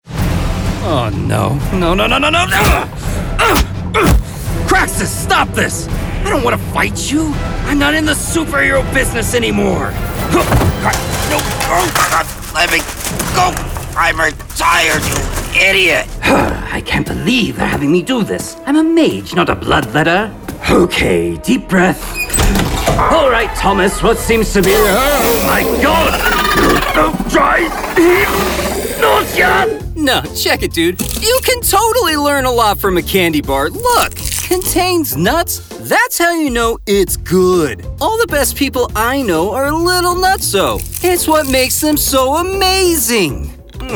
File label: vo character